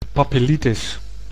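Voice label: "papillitis", Dutch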